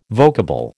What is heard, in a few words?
"vocable", American